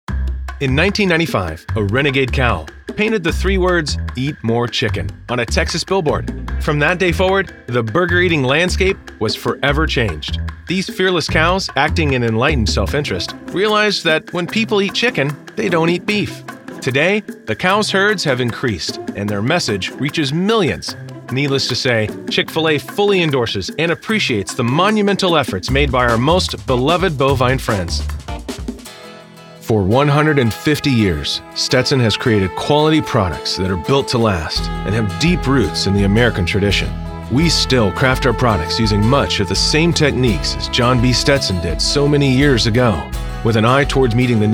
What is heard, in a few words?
CORPORATE MIX